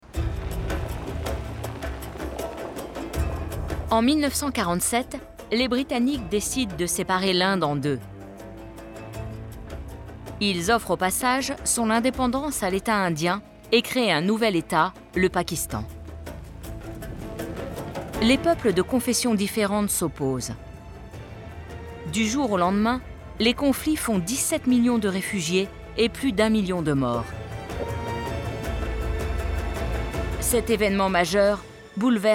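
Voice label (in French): NARRATION, French; PARTITION OF INDIA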